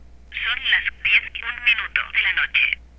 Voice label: spanish touch watch